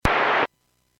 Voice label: Airports 122.7, Radio; KSTE